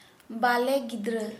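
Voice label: baby, Santali, female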